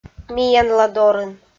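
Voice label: "миянладорын", Komi, female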